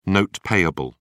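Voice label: "note payable", British English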